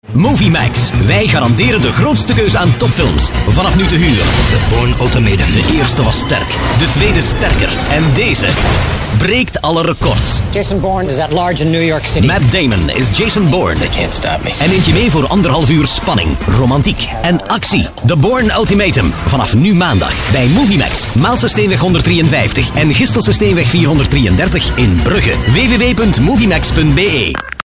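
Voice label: flemish male commercial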